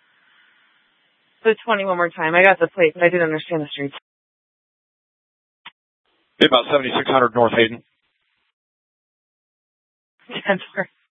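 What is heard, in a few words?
Scottsdale PD North Dispatch; Nth Dispatch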